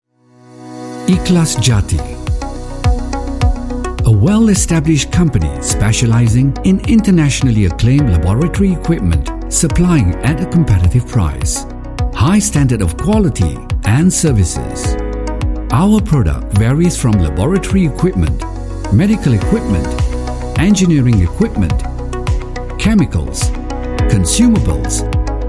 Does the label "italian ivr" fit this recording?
No